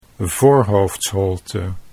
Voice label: "voorhoofdsholte", Dutch

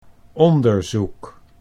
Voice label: "onderzoek", Dutch

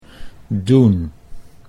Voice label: "doen", Dutch

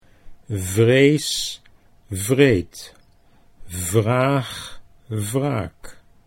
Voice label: vrees wreed, Dutch consonants

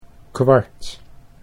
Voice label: "kwart", Dutch

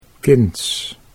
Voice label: "kinds", Dutch

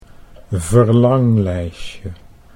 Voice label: "verlanglijstje", Dutch

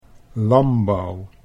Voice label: "lanbouw", Dutch

